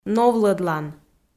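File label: "новлӧдлан", Komi-Zyrian, female; kpv audio dict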